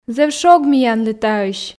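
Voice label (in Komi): зэв шог миянлы таысь, Komi, female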